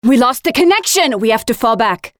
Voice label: Lose match, Voiceovers; Project Argo